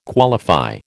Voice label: "qualify", American